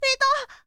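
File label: "ita", Japanese, boy; shonen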